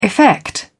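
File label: "effect", British English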